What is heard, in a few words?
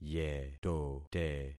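"yetote", concatenated syllables; Affixing experiment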